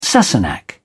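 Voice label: "sassenach", British English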